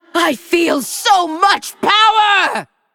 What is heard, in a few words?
Female Undead, Emote Response; Lich Tamsin